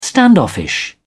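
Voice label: "standoffish", British English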